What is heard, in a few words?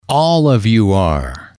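"all of you are temporary", male, English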